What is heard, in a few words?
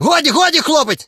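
surrender, Ukrainian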